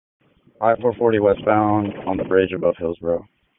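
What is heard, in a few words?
Police Southwest District Dispatch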